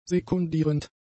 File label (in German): "sekundierend", German; adjectives